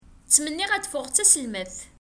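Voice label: "Ttmenniɣ ad d-ffɣeɣ d taselmadt.", Kabyle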